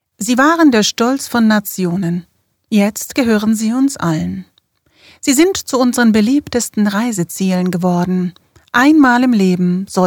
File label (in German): Doku